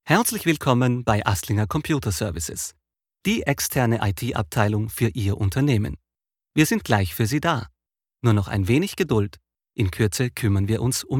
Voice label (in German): Telefonwarteschleife